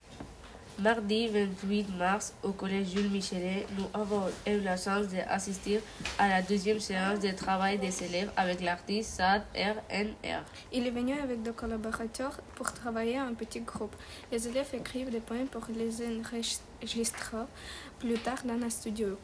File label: PODCAST SLAM